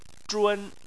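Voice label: "zhun" Chinese, first tone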